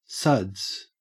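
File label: "suds", Australian English; pronunciations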